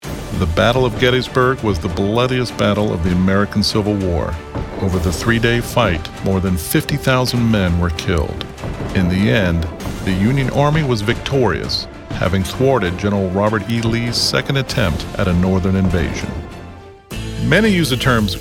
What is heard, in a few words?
Narrations